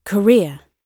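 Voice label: "career", British English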